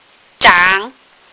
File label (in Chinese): "zhang3", phonics